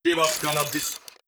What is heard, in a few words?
robot human male greetings; Stellaris; synthetic dawn